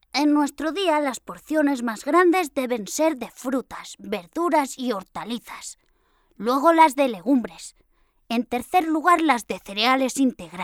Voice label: locutora niño spanish child voice

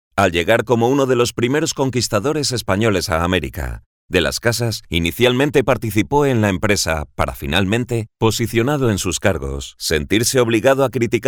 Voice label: locutor spanish voiceover

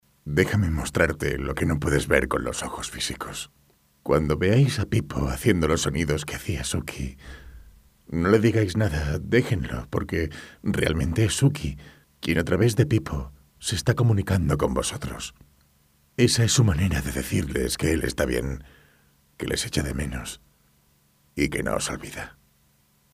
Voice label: locutor spanish voiceover

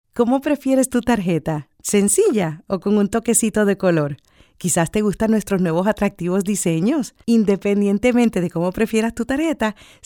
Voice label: locutora puerto rico puerto rican voiceover

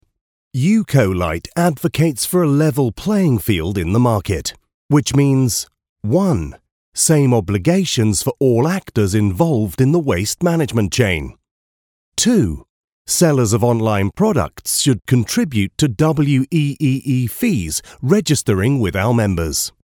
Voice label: english voiceover locutor inglés

